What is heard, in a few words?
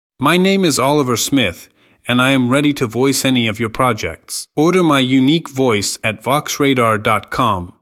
UK